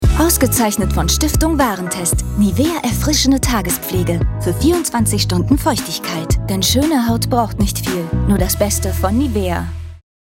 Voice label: Tv Spot Creme; Nivea

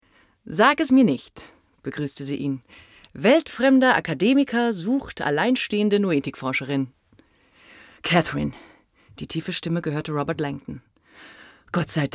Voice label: erzaehlung

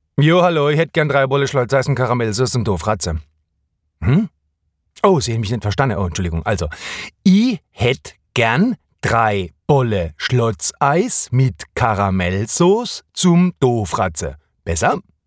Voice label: badisch

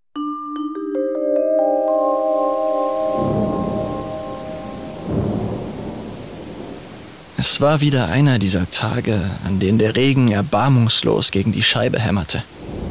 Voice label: Hoerspiel MANN

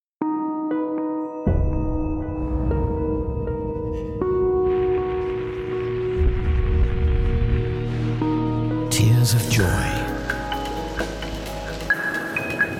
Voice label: uk englisch; voxhaus hh

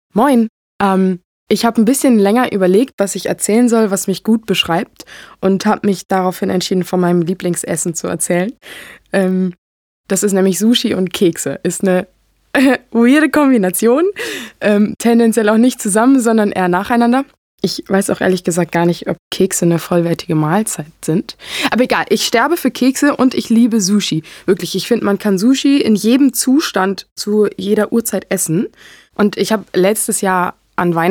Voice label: Natuerliche Stimme

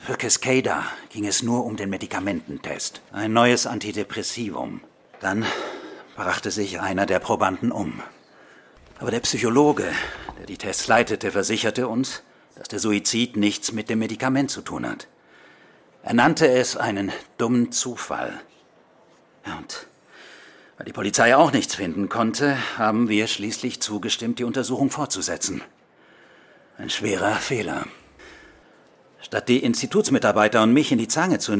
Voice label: Hoerspiel; Hyde Away

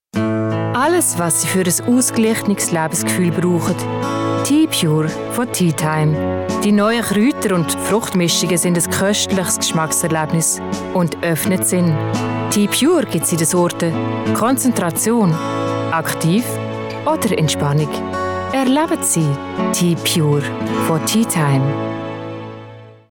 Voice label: Werbung Schweizerdeutsch

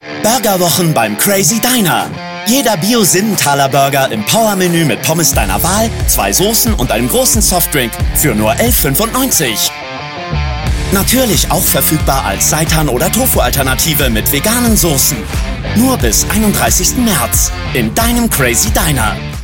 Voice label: Werbung Burgerwochen; LOFT VOICES

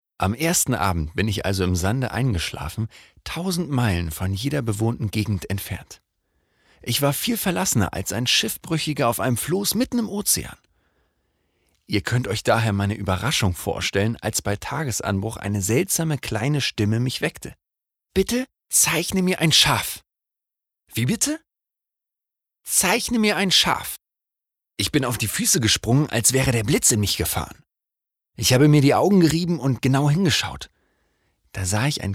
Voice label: Hoerbuch; Kleiner Prinz

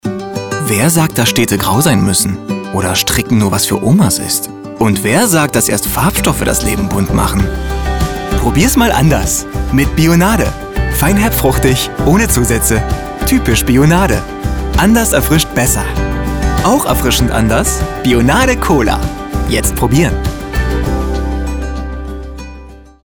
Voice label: Werbung Erfrischungsgetraenk; LOFT VOICES

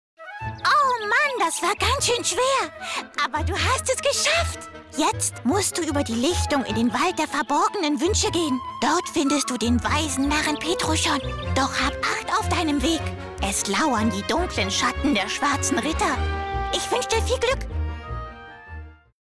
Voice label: trickstimme niedlich

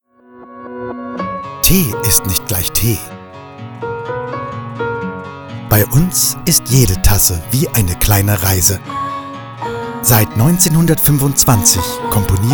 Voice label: Sinnliche Werbung

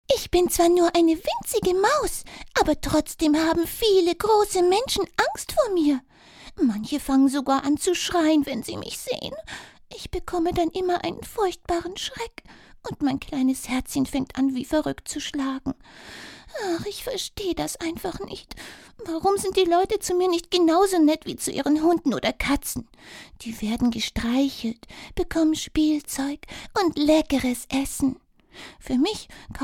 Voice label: trickstimme

